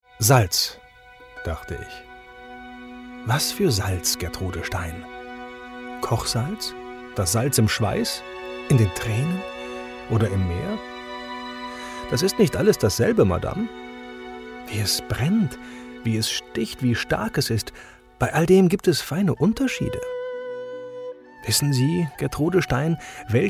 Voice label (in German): erzaehlung